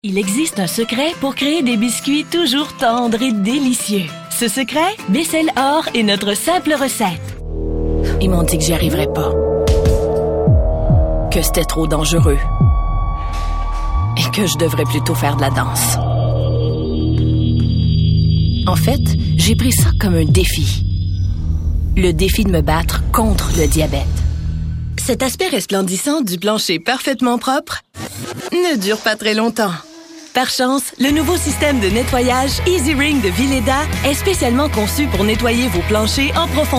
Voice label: french commercial